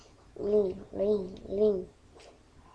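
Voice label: "ring", spoken word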